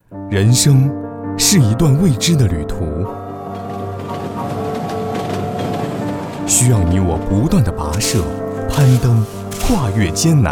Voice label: 栏目包装, 普通话, 青年, 男; 【大版】到不了的远方

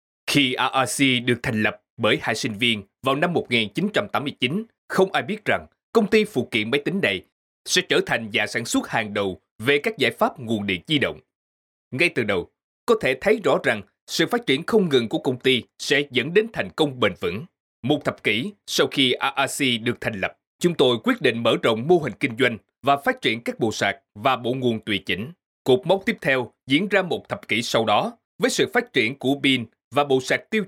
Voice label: 宣传 沉稳 大气

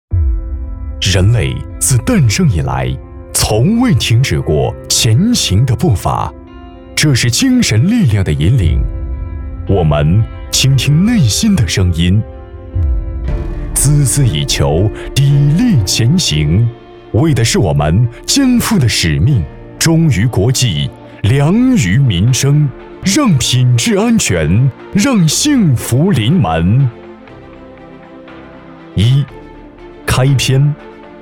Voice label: 广告磁性厚重

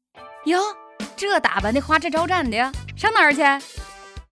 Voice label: 保定方言

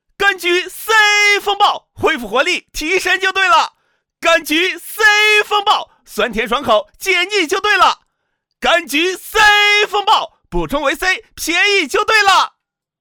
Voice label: 激情活力

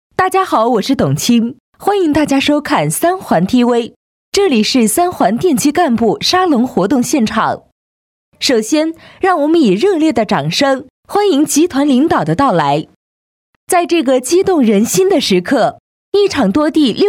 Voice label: 广告节目导视; 董卿

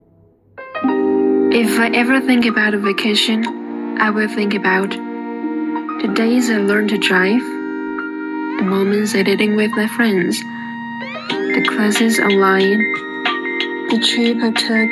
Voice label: 英文

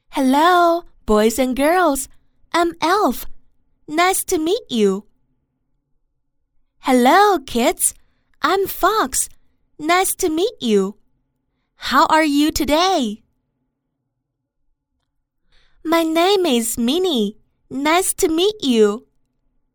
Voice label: 美式女英文绘本儿童故事

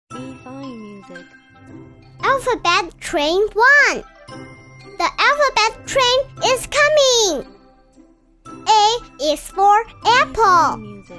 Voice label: 英语绘本角色

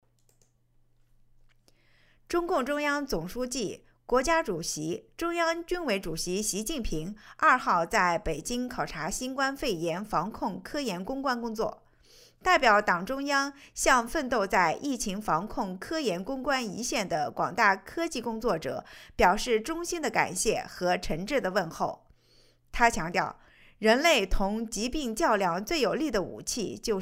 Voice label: 新闻

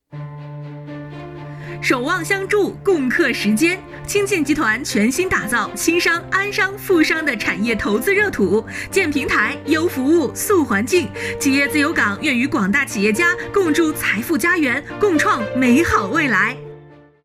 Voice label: 广告

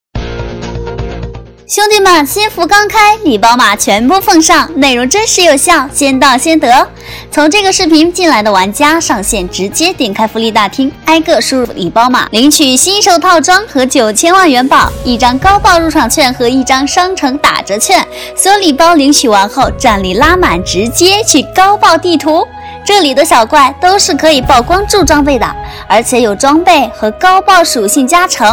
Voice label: 女声口语化甜美有情绪起伏

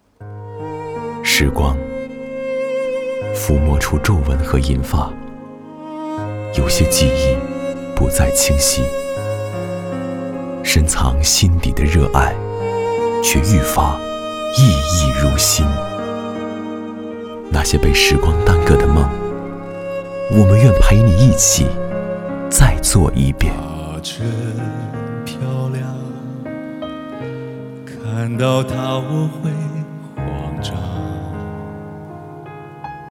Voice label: 走心旁白低沉磁性

